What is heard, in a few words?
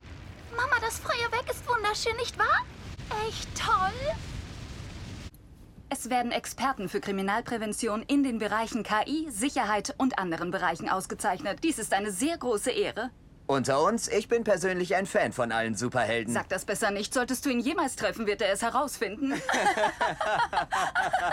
anime